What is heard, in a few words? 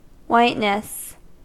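"whiteness" English, US; pronunciations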